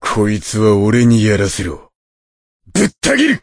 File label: voice, dubbing